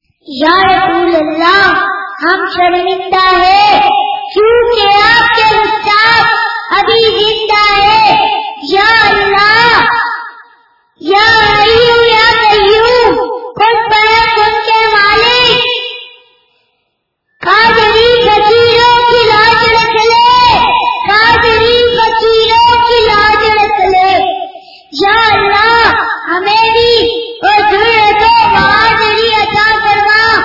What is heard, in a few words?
kids speech; gustakherasoolkisaza